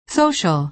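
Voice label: "social", American English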